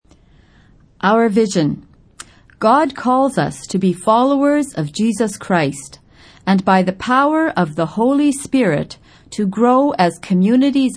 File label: English; Purpose Spoken Versions